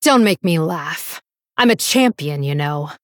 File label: Female Blood Elf